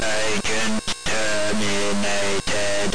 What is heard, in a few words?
Agent Terminated Digit; Meltdown; Alligata Software